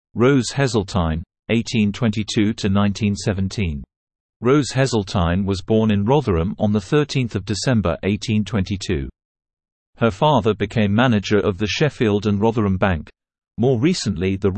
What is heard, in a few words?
Male